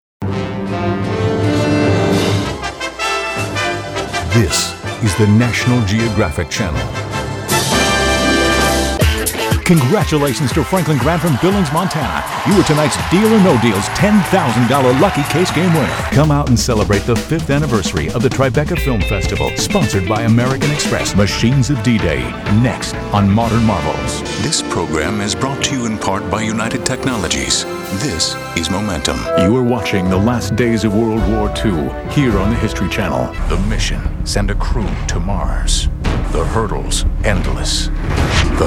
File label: promo